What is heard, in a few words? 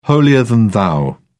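"holier than thou", British English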